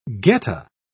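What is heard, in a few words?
"geta", British English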